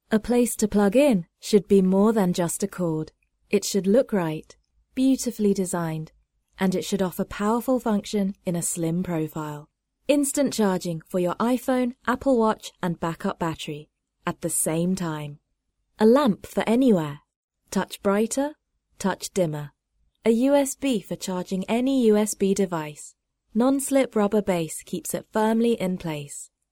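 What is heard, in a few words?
English British, female, voice over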